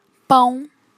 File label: "pão", Portuguese